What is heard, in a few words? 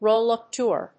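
"roll-up door", English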